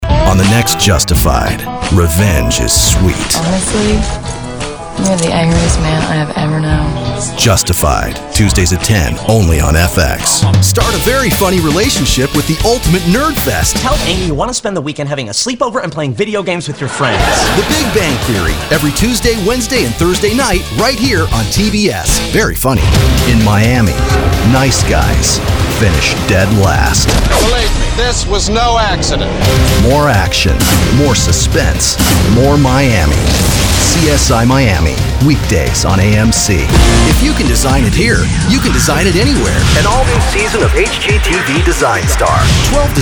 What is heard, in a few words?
Promo, Male voices